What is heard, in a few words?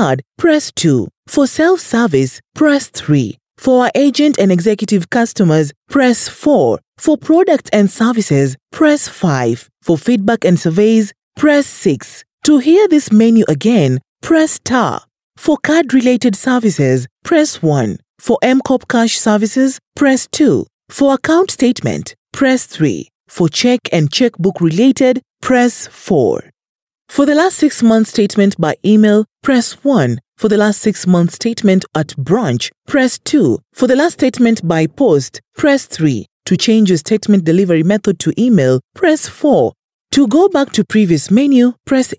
Swahili Bank IVR English